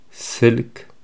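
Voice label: Amharic; telephone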